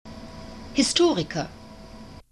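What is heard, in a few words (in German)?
"historiker", German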